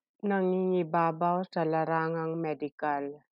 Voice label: Tagalog; dominating the medical field - nangingibabaw sa larangang medikal